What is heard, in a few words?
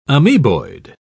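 "amoeboid", English, British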